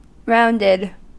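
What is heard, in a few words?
"rounded", US English; pronunciations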